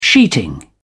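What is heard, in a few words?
"sheeting", British English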